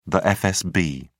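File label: "fsb", British English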